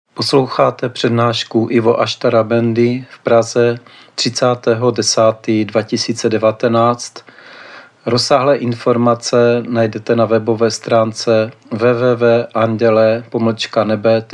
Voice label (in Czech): prednaska; praha